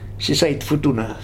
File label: "Sisait Futuna", futuna aniwa